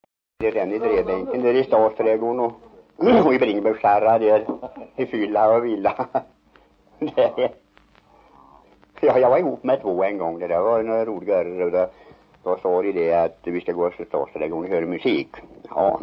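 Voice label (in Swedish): Järnskog Värmland, dialekter; Folkminnen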